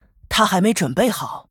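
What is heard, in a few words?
Female, Chinese; ERROR SUMMON SICKNESS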